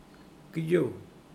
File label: run, Mokilese